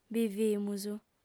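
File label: "bivimus", female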